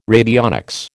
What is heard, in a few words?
"radionics", American